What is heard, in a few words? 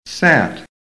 "sat", american; PHONETIC REFERENCE